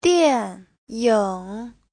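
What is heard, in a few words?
"diànyǐng", Mandarin Chinese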